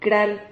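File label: "cral", Spanish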